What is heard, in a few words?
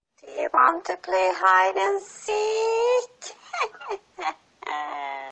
Granny